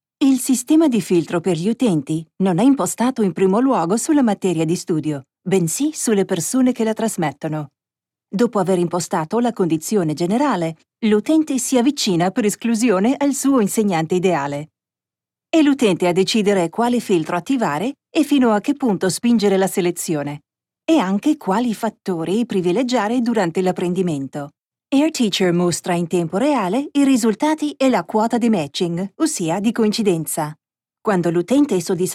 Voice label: Italian Elearning